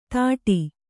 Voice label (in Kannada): "ತಾಟಿ", Kannada